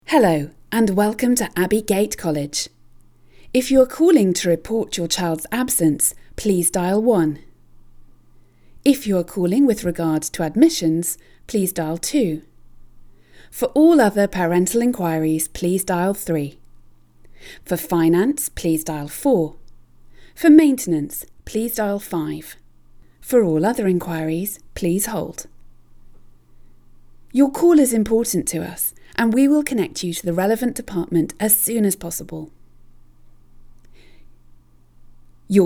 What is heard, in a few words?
IVR example